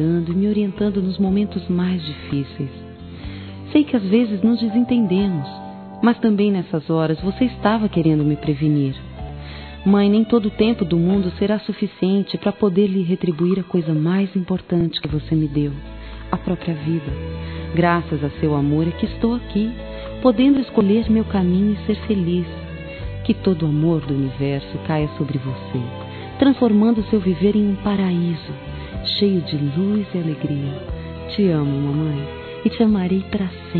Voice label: ANIVERSÁRIO MÃE F, Telemensagens, Fem; Aniversários; Aniv Familiar

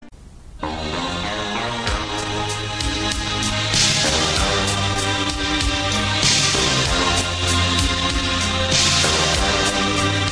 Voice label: ROM RELACIONAMENTO ABALADO FEM

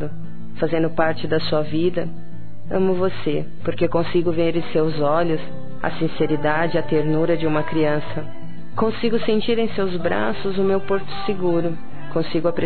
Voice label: Quero lhe Dizer Feminino; Dia Dos namorados; Telemensagens 2017

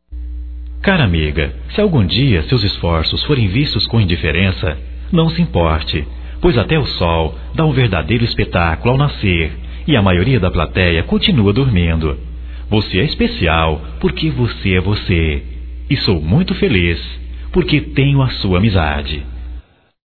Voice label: Amizade Geral Masculino

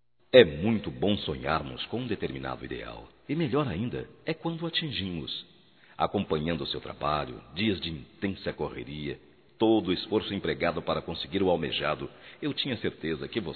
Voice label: VITóRIA, masc; Telemensagens 2017

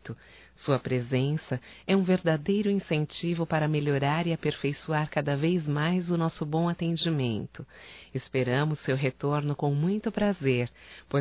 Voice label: CLIENTE MéDICO(F); Profissionais; fem; Telemensagens 2017

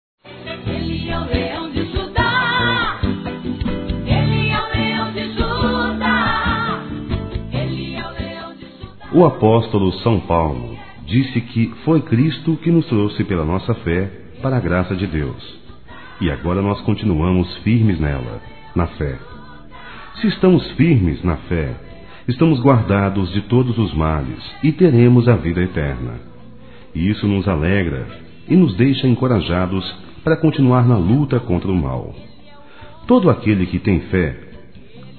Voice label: Aniversário Geral Turma Masculino; ANIV. Evangelico

